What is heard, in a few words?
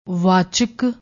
"wachak", Punjabi; ਵਾਚਕ